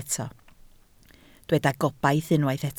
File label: "gobaith", Welsh